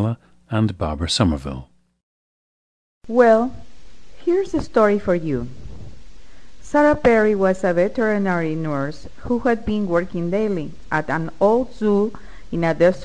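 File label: peru, dialects of English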